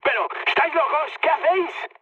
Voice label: player killed ally, mood high, speech; War Thunder